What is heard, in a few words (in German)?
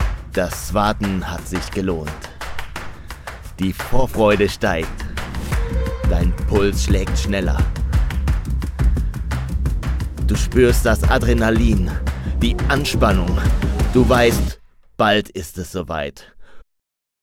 Hoerprobe Werbung